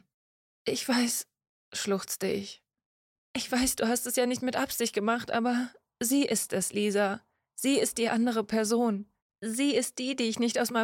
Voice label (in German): Hoerbuchprobe; Alles was zaehlt sind wir